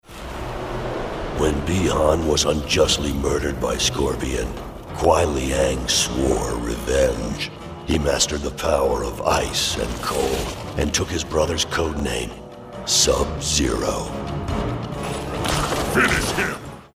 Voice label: ominous